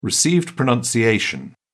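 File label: "received pronunciation", British English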